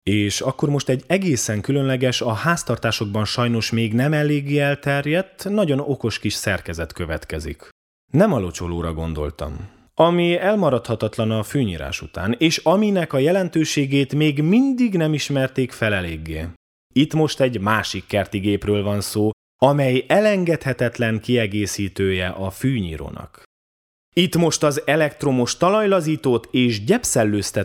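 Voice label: hungarian male narration; voice demos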